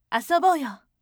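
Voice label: voice asobouyo firm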